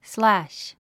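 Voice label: "slash", 英単語 発音; slǽʃ スラァッシュ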